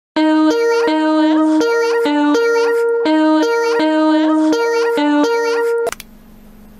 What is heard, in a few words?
UwU Skype